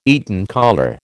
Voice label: "Eton collar", American